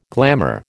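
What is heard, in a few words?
"glamour", American English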